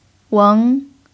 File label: "weng2", Mandarin pinyin